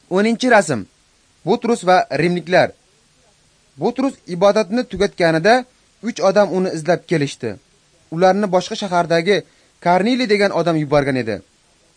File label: uzbek northern; acts of the holy spirit